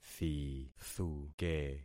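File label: "thithuke", concatenated syllables; Affixing experiment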